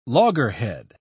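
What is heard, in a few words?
"loggerhead", British English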